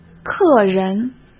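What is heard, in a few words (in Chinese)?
"客人", Mandarin Chinese; chinese flashcards; hsk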